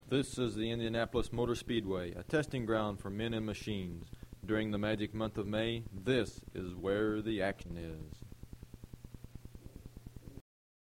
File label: Racing Dialog